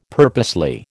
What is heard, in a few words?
"purposely", American